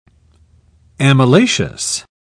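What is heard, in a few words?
"amylaceous", British English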